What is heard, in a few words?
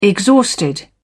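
"exhausted", British English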